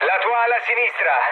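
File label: Italian left wing mood low, speech; War Thunder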